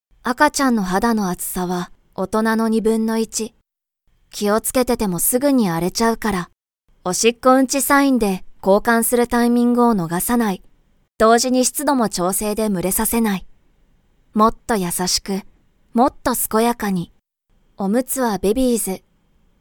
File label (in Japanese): しっとり、落ち着き, 女性ナレーター